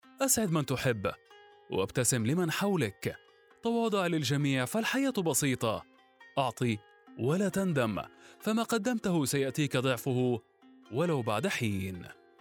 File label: arabic commercial